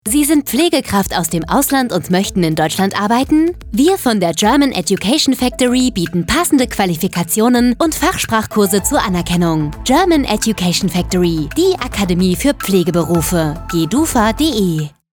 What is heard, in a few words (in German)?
Funkspot; German Education Factory GmbH